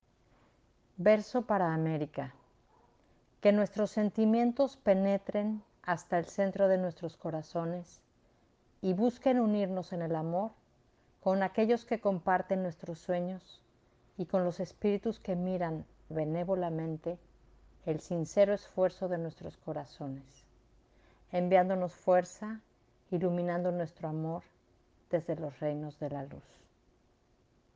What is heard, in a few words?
Verse for America Spanish Audio; Verse for Blessings